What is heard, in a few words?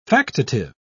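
"factitive", British English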